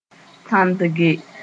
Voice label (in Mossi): "tãndge", moore